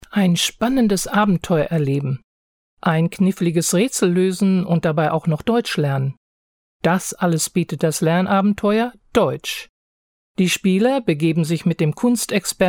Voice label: German

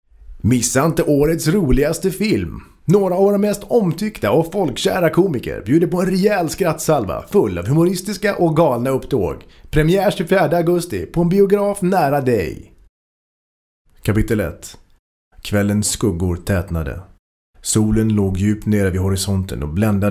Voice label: Swedish

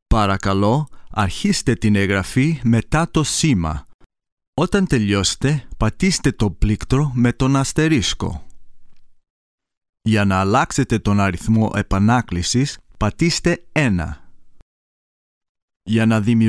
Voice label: Greek

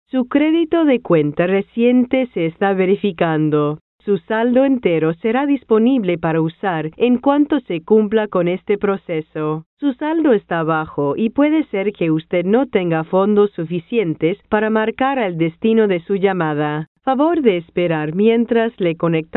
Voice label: Spanish

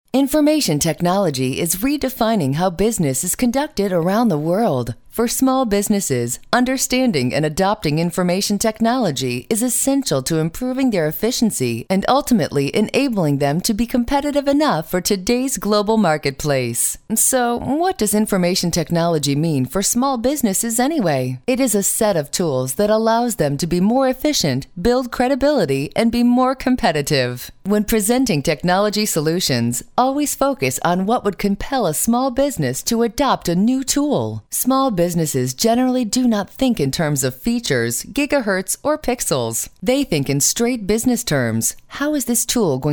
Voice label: US English

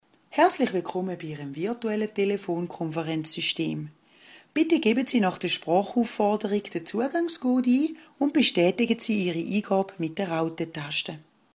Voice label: Swiss

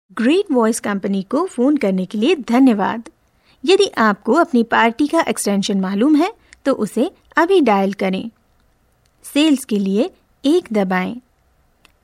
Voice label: Hindi

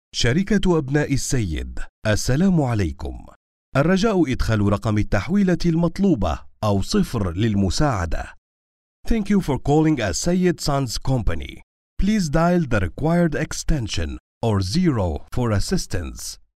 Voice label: Arabic

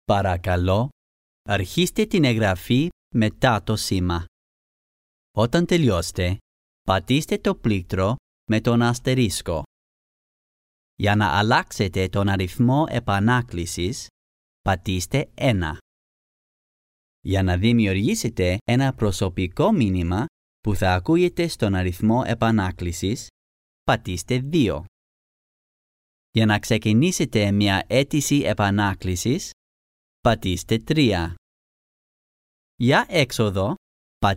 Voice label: Greek

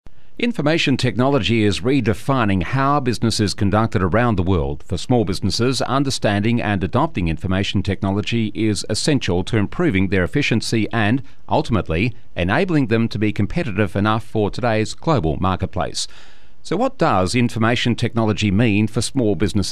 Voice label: Aussie English

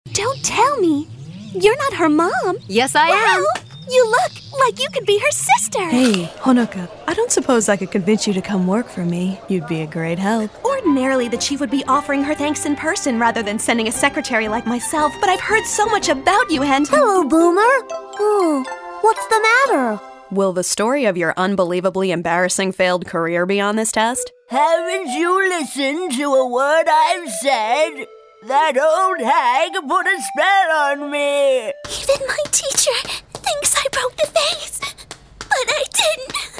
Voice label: Character

